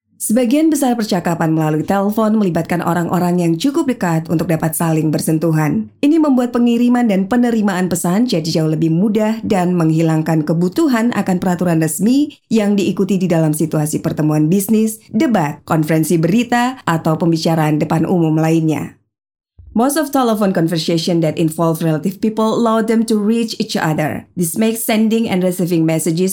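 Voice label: Indonesian, female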